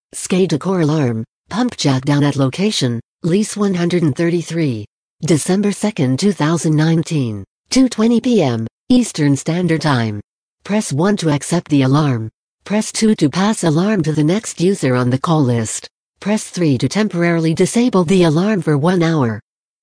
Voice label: alarm callout pumpjack down notification